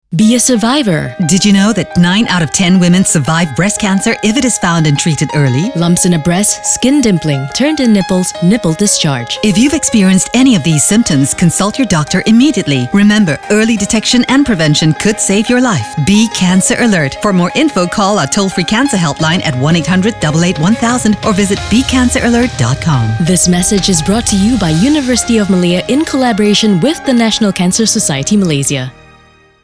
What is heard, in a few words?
Radio English